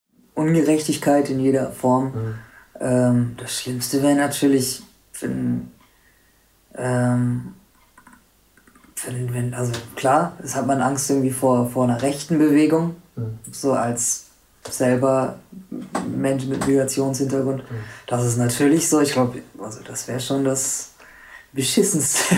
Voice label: punjab pakistan